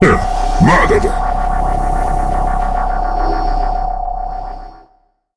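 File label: tower repair enemy, Japanese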